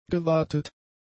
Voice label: "gewatet", German; adjectives

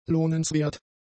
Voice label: "lohnenswert", German; adjectives

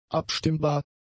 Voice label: "abstimmbar", german; adjectives

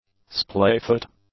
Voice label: "splayfoot", english

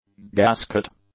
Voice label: "gasket", English; nouns

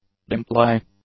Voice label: "dimply", english; adjectives

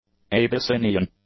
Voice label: "Abyssinian", English; adjectives

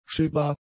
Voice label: "schiffbar", German; adjectives

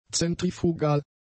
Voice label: "zentrifugal", German; adjectives

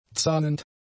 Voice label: "zahnend", German; adjectives

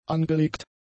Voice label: "angelegt", German; adjectives

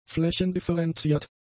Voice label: "flächendifferenziert", German; adjectives

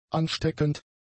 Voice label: "ansteckend", German; adjectives

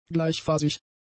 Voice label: "gleichphasig", German; adjectives

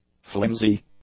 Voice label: "flimsy", english; adjectives

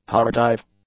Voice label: "tardive", English; adjectives

